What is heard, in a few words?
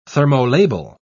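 "thermolabile", British English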